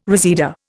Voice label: "reseda", Indian